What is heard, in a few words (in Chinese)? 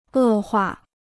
"恶化", Chinese